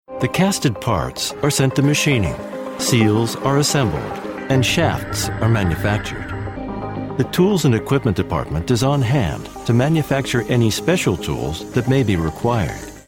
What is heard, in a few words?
corporate